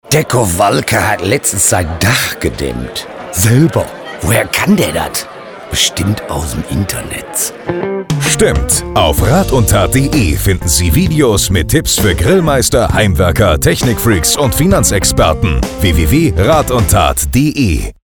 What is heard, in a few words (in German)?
Spot Dach